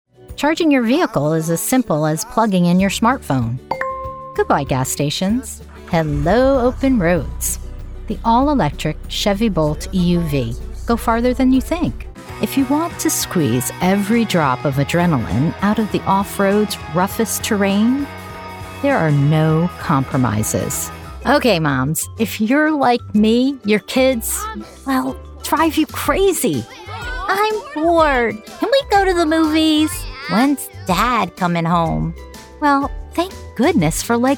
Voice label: Commercial